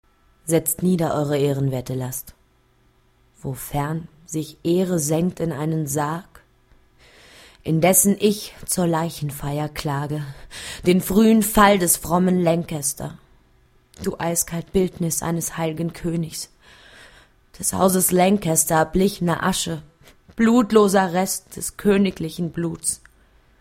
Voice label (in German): klassisch; richard iii